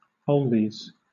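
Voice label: "oldies", British English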